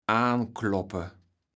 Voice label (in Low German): "aankloppe", kaevels platt